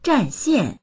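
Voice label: "zhan4xian4", Mandarin Chinese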